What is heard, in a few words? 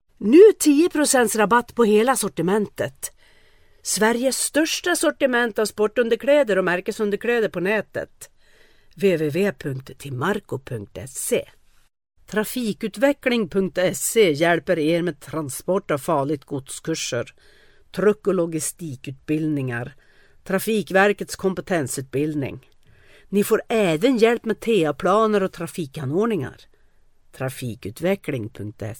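Swedish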